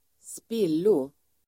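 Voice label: "spillo", Swedish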